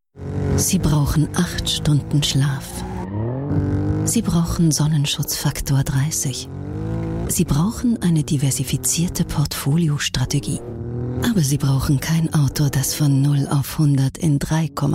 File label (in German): OFF, frauen; Alfa Romeo Giulia